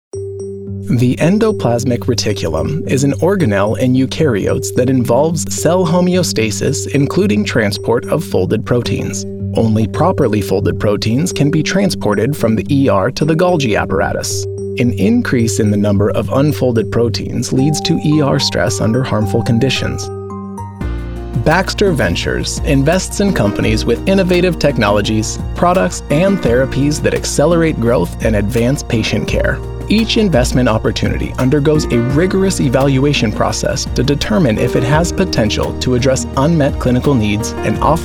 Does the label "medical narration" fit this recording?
Yes